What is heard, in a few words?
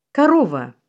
COW, russian